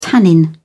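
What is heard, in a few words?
"tannin", British English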